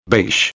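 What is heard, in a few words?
"beige", Spanish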